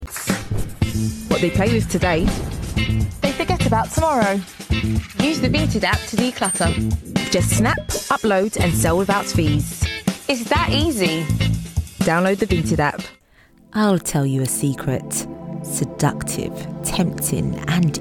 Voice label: Commercial

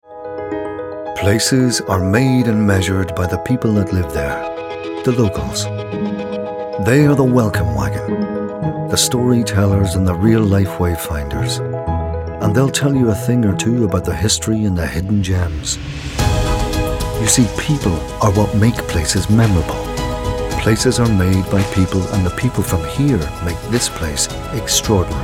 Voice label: Commercial

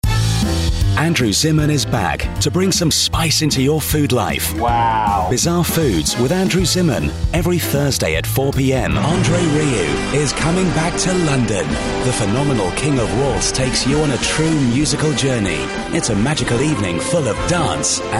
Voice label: Television Playful Style

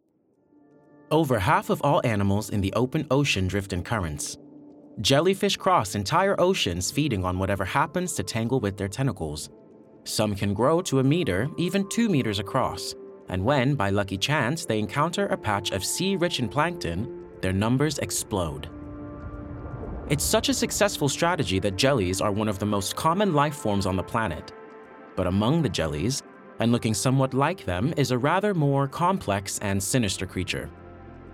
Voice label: Documentary

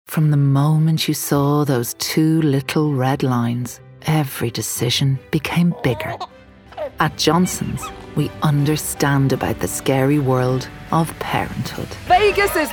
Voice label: Commercial

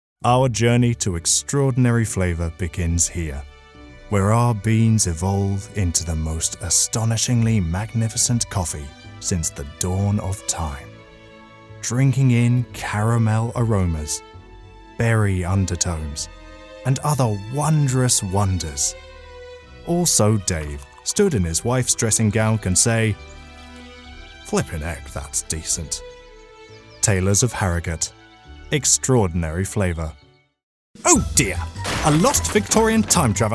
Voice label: Commercial

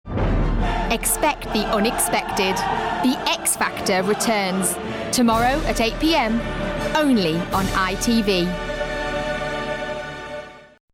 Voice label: promo

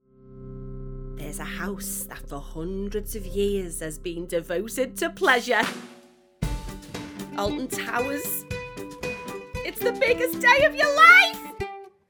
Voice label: Commercial; Alton Towers

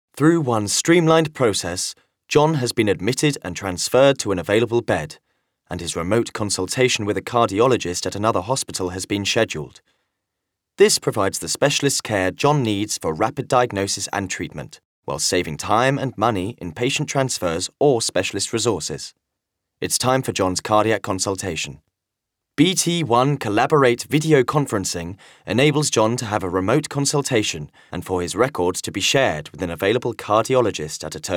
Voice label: Narrative

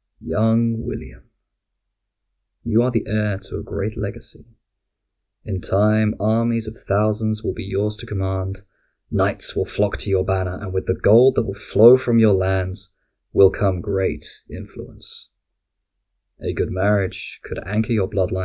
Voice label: English Narrative

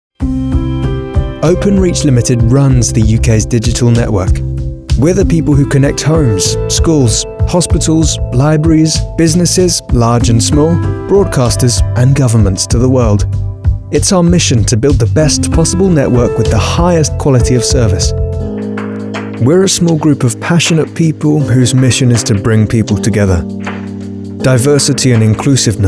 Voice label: CORPORATE

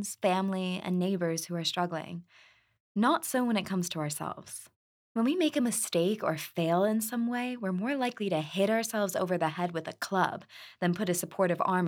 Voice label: Self Help Nonfiction Audiobook Sample US

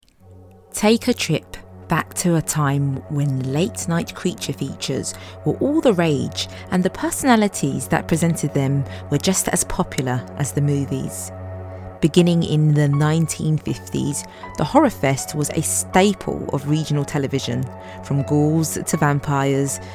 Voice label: Documentary

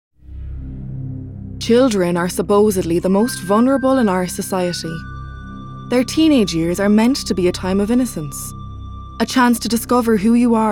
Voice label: Narrative

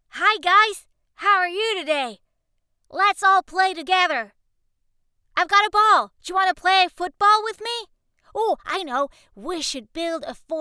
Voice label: ANIMATION English

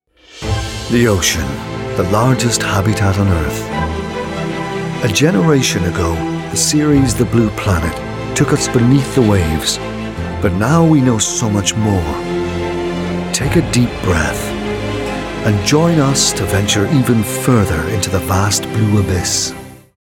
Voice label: Narration

